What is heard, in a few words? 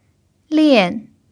"lian4", chinese pinyin